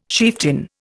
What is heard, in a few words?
"chieftain", Indian